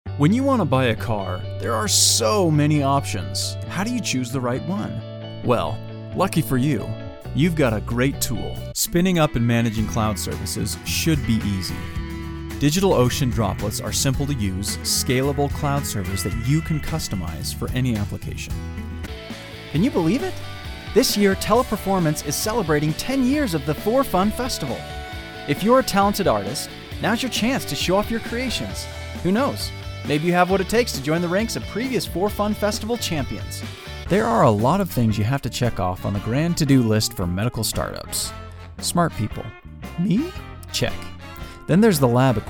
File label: Commercial